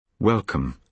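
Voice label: "welcome", British English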